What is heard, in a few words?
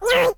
voiceclip hurt